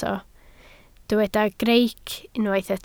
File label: "greic", Welsh